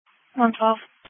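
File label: Police Patrol Dispatch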